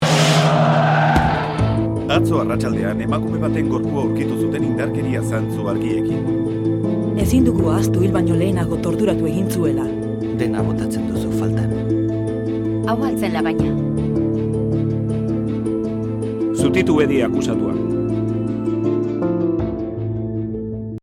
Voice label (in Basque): soinu EUSKERA